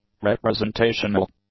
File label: "representational", English; adjectives